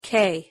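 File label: "k", English; pronunciation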